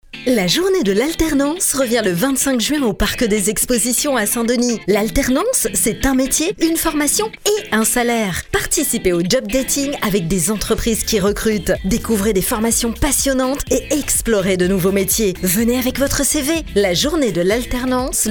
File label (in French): Spot radio; communication 2026